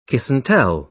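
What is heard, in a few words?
"kiss-and-tell", British English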